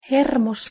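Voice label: "h2ermos", Proto-Indo-European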